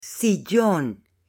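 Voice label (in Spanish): "SILLON", female; MUEBLES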